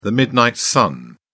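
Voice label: "midnight sun", British English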